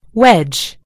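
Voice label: "wedge", American English; gcompris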